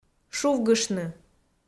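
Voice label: "шувгыштны", Komi, female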